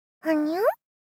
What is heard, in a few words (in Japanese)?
「はにゃ??」 幼女 中音域